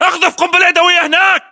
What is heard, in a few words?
order action grenade, battle chatter, english